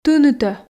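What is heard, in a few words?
"тынытӧ", Komi, female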